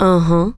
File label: "uhuh", female; kingpin; neutral